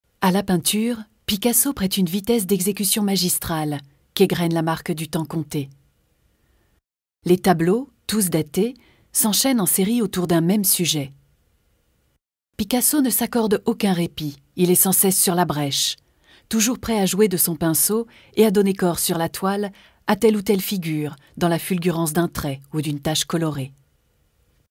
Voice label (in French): Audio guide; Musée Picasso